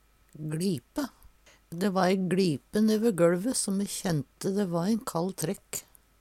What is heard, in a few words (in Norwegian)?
gLipe, Numedalsmål; smal opn